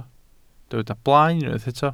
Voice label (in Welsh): "blaen", Welsh